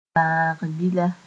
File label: "bãag bila", Mooré; amoeba